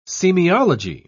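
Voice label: "semiology", English, British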